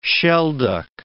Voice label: "shelduck", English